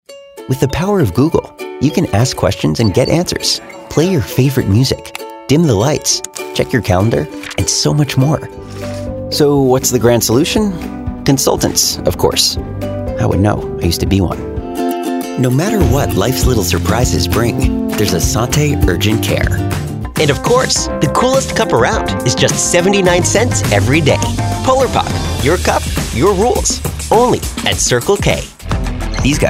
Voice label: Commercial VO